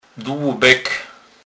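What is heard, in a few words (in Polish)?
"dlubek", Kurpie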